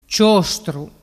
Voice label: "ciostru", dialettu